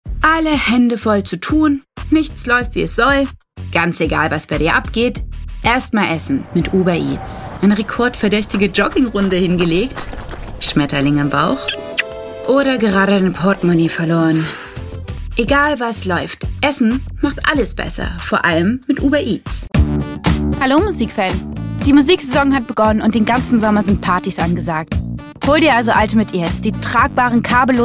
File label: German